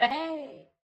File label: human female greeting, utterance